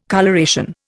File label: "coloration", Indian